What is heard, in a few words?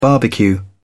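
"barbecue", British English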